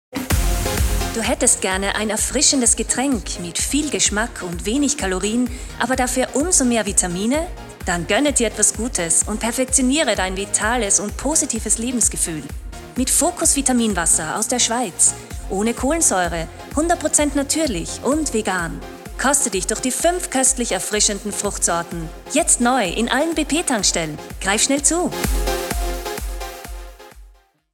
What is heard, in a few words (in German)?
Werbung, Werbespot; Werbespot Focus Water